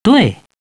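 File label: "yes", male; TALKNOW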